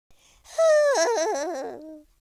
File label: sad, Cowgirl; speech